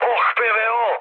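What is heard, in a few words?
Serbian damaged aa mood high, speech; War Thunder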